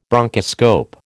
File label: "bronchoscope", American